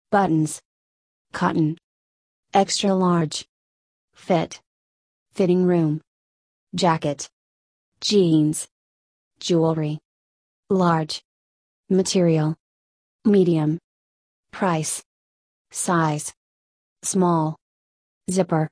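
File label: American; clothing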